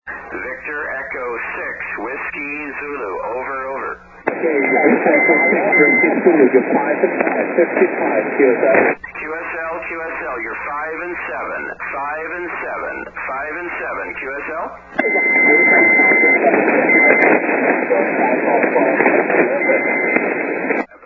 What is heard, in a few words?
80m SSB; 80m DXCC Entities worked from 2002-2008